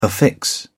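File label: "affix", English, uk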